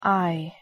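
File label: "i" pronunciation English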